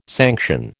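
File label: "sanction", American English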